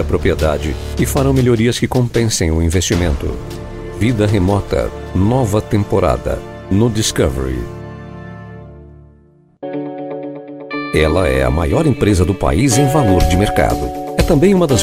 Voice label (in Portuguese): locutor